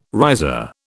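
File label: "riser", British English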